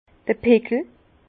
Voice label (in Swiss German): "pickel", Bas Rhin